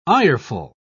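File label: "ireful", British English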